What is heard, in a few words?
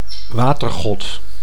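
"watergod", Dutch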